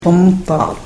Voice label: "pamutaat", karuk

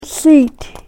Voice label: "siit", karuk

